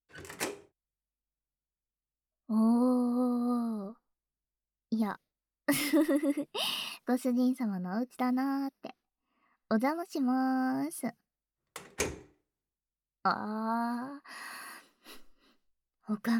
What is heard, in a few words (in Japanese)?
添い寝, ASMR; 添い寝で癒やしてさしあげます♪